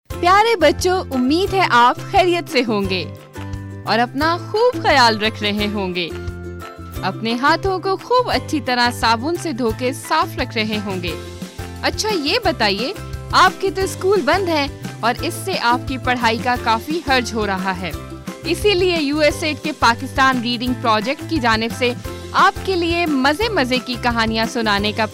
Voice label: Urdu Story; USAID-funded Pakistan Reading Project developed a weekly education radio series in Urdu; Phool Mat Torain